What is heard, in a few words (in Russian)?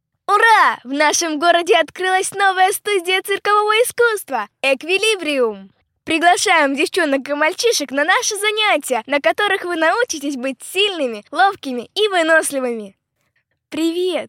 Detskiy golos